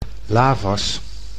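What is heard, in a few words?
"lavas", Dutch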